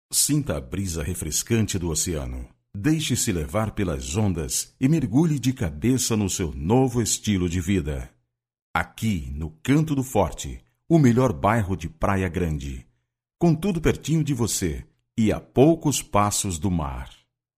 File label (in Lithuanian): (VYR) Brazilu balsas, vyras